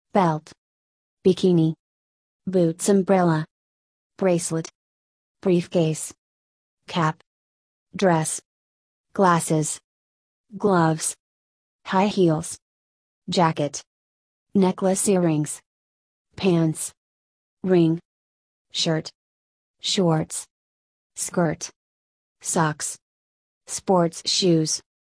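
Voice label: American; clothing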